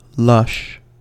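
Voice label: "lush", US English; pronunciations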